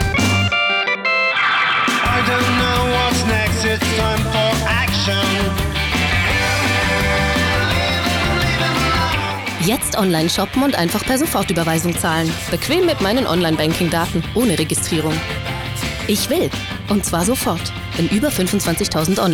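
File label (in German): Werbung Neutral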